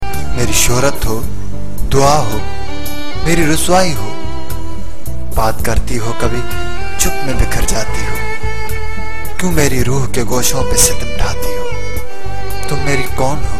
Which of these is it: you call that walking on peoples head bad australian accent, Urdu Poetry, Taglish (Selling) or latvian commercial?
Urdu Poetry